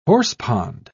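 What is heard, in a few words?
"horsepond", British English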